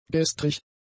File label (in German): "gestrig", german; adjectives